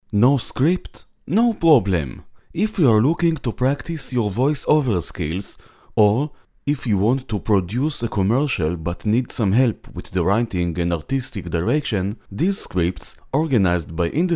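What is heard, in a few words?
Hebrew, male, english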